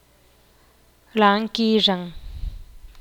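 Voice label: will pay, Teotitlán del Valle Zapotec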